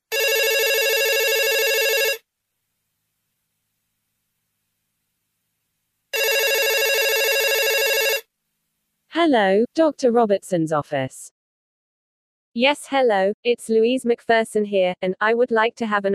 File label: telephone conversation; conversation téléphonique